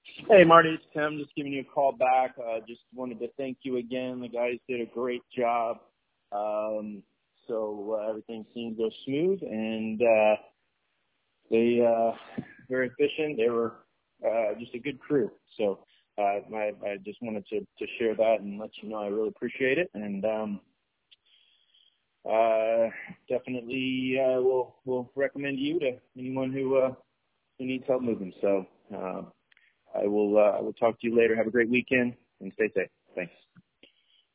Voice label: voicemail